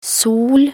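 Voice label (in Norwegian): "sol", Norwegian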